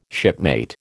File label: "shipmate", American